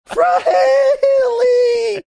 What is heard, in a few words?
saying something funny manner